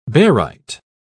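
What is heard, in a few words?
"barite", British English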